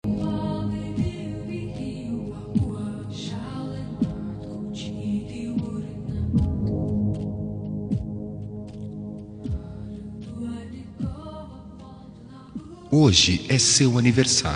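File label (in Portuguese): religiosa masc, Telemensagens; Aniversário Religioso – Voz Masculia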